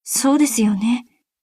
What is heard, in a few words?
unsure